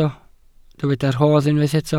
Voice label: "rodd", Welsh

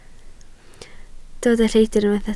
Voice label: "lleidr", Welsh

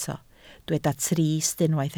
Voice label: "trist", Welsh

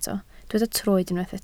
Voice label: "troed", Welsh

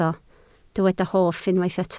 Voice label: "hoff", Welsh

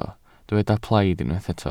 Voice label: "plaid", Welsh

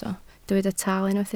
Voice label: "taal", Welsh

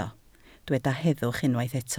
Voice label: "heddwch", Welsh

